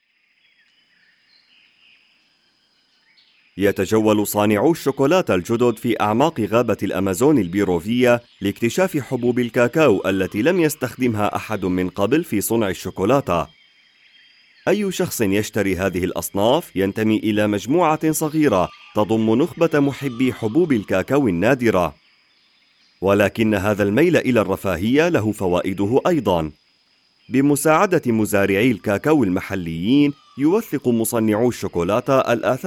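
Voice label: Arabic, documentary; GoLocalise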